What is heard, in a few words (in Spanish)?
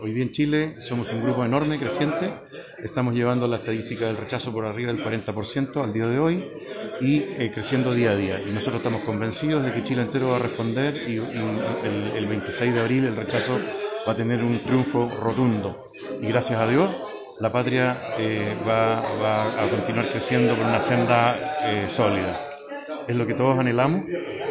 CUÑA; LANZAMIENTO